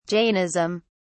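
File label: "jainism", British English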